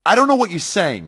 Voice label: talking, grunt; svencoop; st allies; nimble grunt